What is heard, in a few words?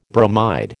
"bromide", American